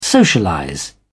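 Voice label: "socialize", British English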